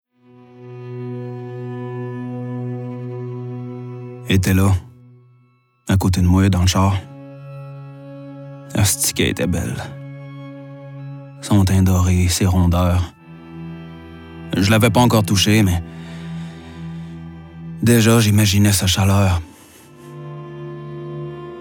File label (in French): Narration; 20h17 Rue Darling